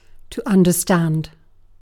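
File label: "understand", British English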